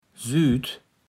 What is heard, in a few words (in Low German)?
"süht", platt